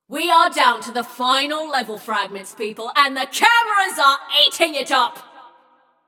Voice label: Scrap Run Entire Arena Nearly Gone, Announcer